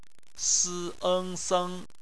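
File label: "seng" bopomofo, Chinese; BPMF spelling; phonetics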